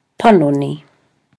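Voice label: "panoni", Māori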